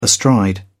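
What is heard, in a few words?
"astride", British English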